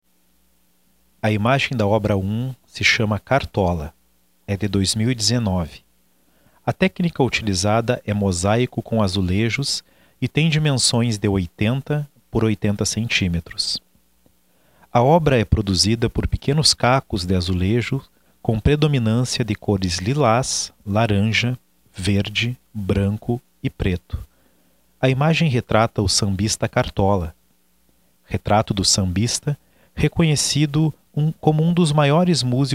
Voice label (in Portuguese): audiodescrição; galeria virtual